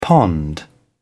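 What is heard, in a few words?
"pond", British English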